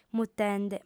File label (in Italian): "mutende", sarda